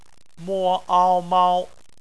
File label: "mao", Chinese; phonetics